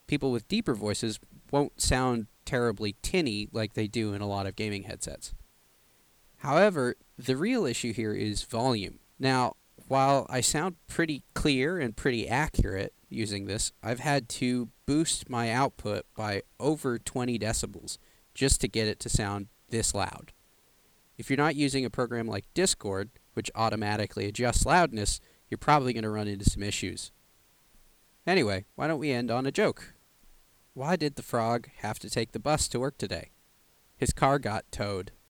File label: Turtle Beach Elite Atlas mic sample